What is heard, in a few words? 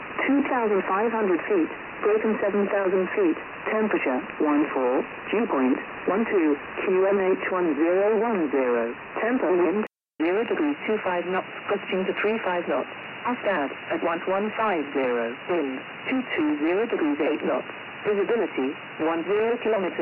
USB RAF Volmet; 5450khz; Cloud-IQ; Perseus